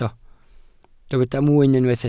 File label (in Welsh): "mwyn", Welsh